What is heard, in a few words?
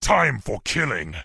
Grunt Yes Attack